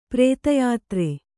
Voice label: "ಪ್ರತ್ಯುತ್ತರ", Kannada